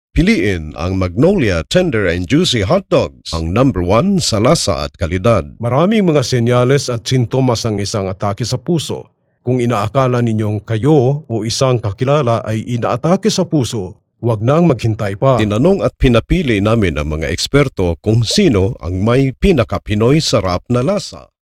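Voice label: Tagalog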